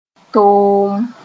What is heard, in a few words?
"toom", Mooré; being bitter